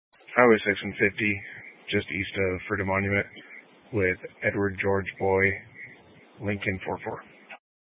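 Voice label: Sheriff Dispatch, Scanner audio recording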